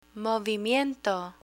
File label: "movimiento" Spanish; optometrist vocabulary; learn spanish